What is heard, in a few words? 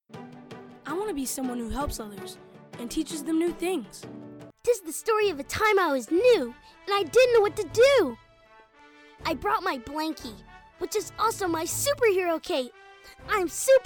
kids commercial